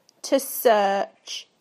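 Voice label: "to search", British English; PictureDictionary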